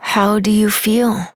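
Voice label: Voice, English; New War; Epilogue; Operator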